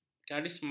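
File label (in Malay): "karisma", Malay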